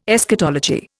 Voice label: "Eschatology", Indian